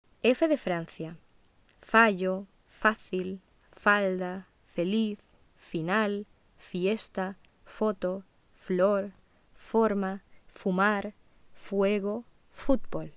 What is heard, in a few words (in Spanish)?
Pronunciacion f; Las consonantes fricativas se articulan por medio de un estrechamiento de los órganos arti